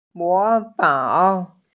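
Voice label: "imitate", Mandarin; mo2fang3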